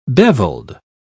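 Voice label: "beveled", British English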